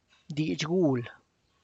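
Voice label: Tlacochahuaya Zapotec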